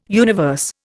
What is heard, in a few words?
"universe", Indian